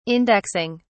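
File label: "indexing", British English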